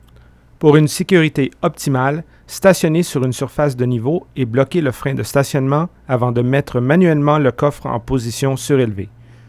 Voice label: French Canadian Male 30, Foreign Voice Talent; French - Canadian Male (30-35 y.o.)